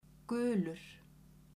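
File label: "gulur", Icelandic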